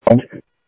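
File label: Sheriff Lake Patrol, scanner audio trunk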